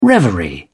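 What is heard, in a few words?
"reverie", British English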